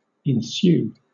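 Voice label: "ensue", British English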